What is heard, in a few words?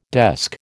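"desk", American English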